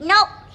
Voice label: "nope" boy, Voice; expletives

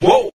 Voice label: "woah", group of men; ooh ahh

